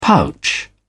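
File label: "pouch", British English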